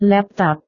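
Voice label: "laptop", Indonesian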